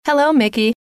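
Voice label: hello, female; trainer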